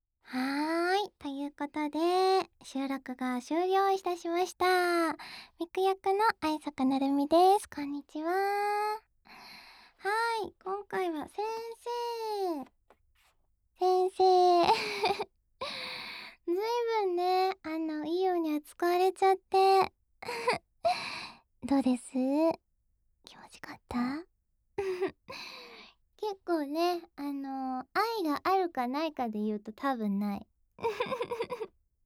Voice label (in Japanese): フリートーク